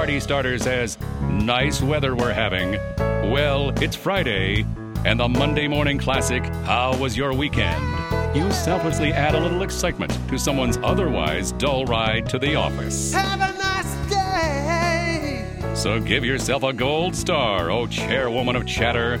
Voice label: Small Talk Elevator GIRL